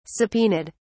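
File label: "subpoenaed", British English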